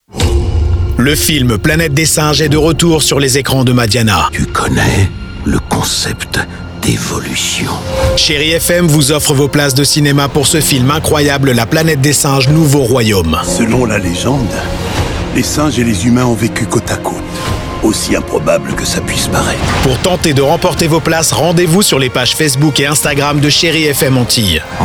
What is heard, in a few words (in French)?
Spot Radio